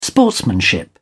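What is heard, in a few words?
"sportsmanship", British English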